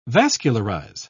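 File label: "vascularize", British English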